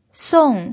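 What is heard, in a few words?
"song", fourth tone, Mandarin Chinese pinyin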